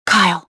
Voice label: suspicious; XBOX

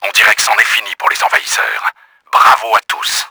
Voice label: VICTORY, french; Battle Engine Aquila; XBOX

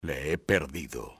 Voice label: lost him, spanish soldier; XBOX

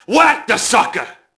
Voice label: mobster alert group; enemy; Max Payne

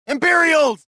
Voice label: anger, prisoner

